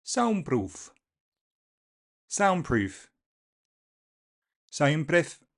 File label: Welsh